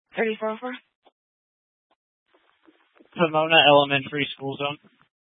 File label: Police Dispatch, scanner